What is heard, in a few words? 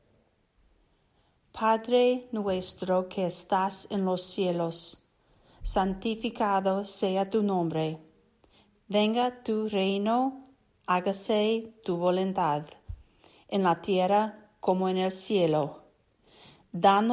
spanish